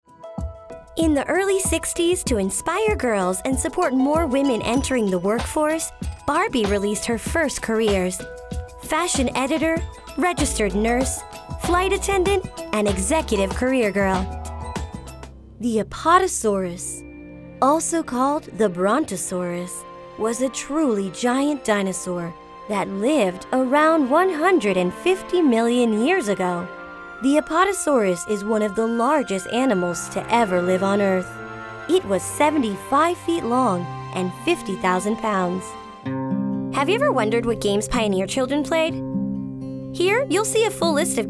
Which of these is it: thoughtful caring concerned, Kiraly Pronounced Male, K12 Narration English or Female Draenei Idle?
K12 Narration English